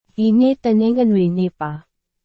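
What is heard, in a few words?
Burmese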